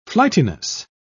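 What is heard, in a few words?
"flightiness", British English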